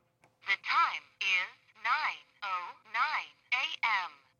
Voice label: Female; VocaTime